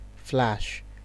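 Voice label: "flash", Nigerian Pidgin, male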